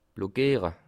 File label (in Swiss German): "blockiere", alsace